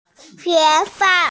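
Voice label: "hé fàn", Mandarin Chinese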